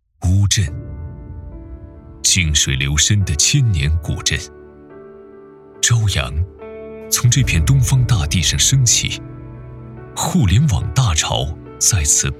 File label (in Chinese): 男 现场VCR 串场VCR; 世界互联网大会乌镇串场VCR